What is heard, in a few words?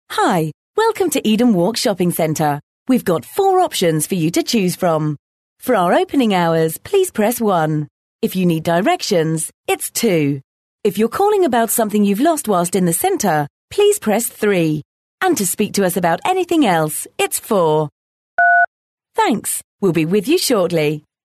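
English, female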